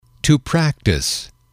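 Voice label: "to practice", English, male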